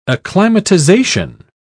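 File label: "acclimatisation", British English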